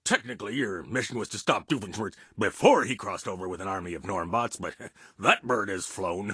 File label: English Voice